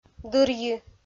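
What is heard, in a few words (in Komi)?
"дырйи", Komi, female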